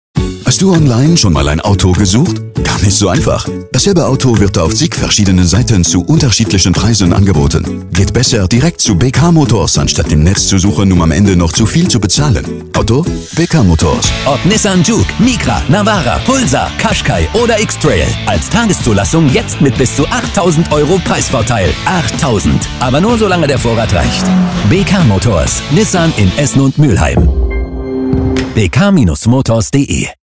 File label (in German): Funkspot; BK Motors